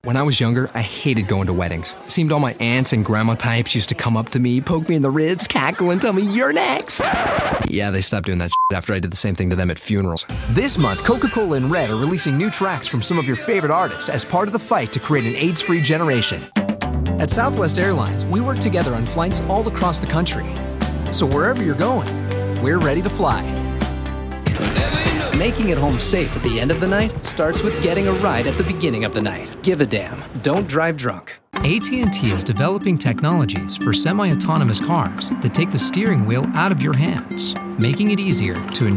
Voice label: american voice montage

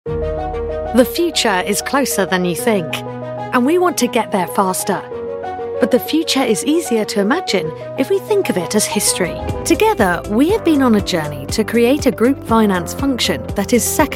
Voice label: corporate

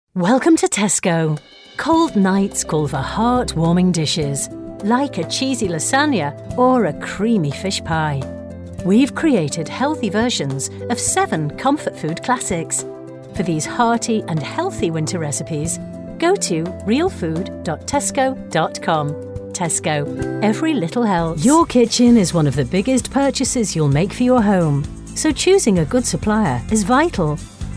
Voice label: voiceover montage

